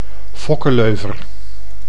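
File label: "fokkeleuver", Dutch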